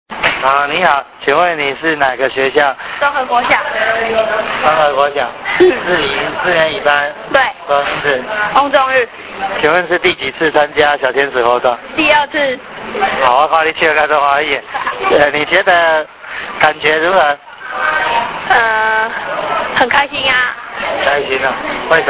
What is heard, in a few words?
interview; 感動電池小天使對於本次活動的心得感想; 感動電池小天使關懷之旅